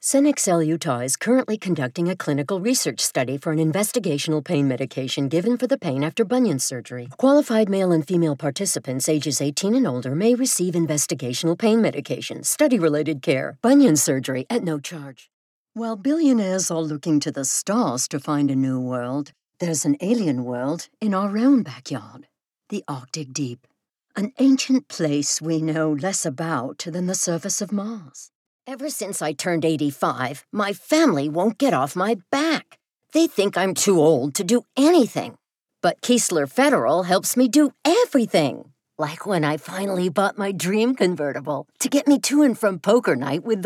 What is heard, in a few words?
Radio and TV, voiceover